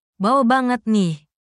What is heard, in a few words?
indonesia, negative phrases